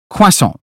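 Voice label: "croissant", British phonetics; 英语音标发音（剑桥版）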